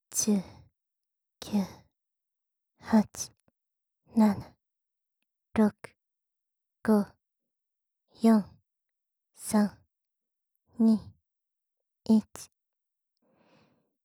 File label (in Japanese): カウントダウン 普通